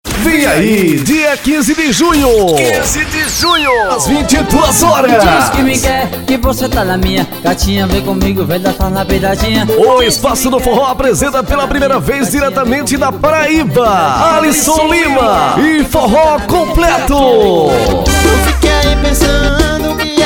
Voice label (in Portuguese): spot; alysson lima em sp festa